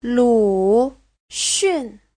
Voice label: "lu3 xun4", chinese

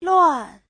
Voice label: "luan4", chinese; Giáo trình Hán ngữ

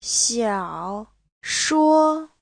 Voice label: "xiao3 shuo1", Chinese

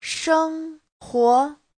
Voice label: "sheng1huo2", Chinese; Giáo trình Hán ngữ

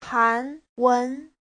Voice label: "han2 wen2", chinese

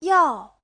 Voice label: "yao4", Chinese

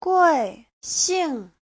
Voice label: "gui4 xing4", chinese

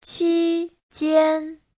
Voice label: "qi1jian1", chinese; 期间; dịp, lúc

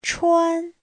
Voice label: "chuan1", chinese; Giáo trình Hán ngữ

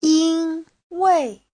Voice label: "yin1 wei4", Chinese

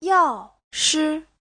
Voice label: "yao4shi5", Chinese

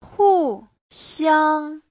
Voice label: "hu4xiang1", chinese; 互相; lẫn nhau

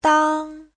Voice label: "dang1", chinese

